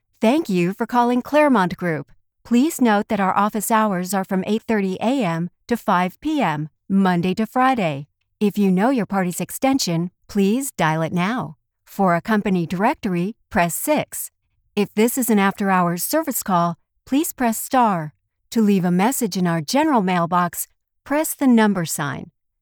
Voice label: Phone Tree; Clermont